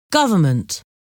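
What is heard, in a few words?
"government", English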